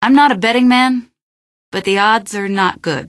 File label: question, female; npc; Garry's Mod; hl2